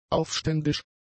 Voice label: "aufständisch", German; adjectives

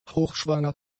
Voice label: "hochschwanger", German; adjectives

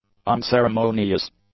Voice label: "unceremonious", English; adjectives

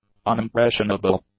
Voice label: "unimpressionable", English; adjectives

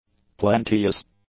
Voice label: "plenteous", english; adjectives

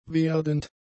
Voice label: "werdend", German; adjectives

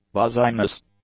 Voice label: "buzziness", English; nouns

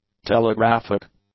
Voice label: "telegraphic", English; adjectives

